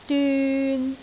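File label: "dyun3", Cantonese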